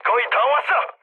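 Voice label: Korean near waypoint mood medium; speech; War Thunder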